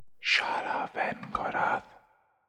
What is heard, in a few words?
incantations whispers masc forward standard; psfx